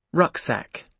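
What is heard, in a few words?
"rucksack", British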